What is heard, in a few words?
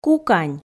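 "кукань", Komi, female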